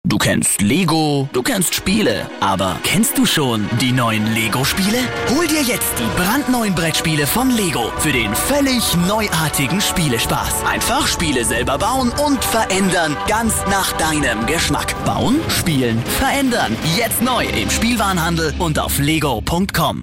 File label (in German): Werbung, Dynamisch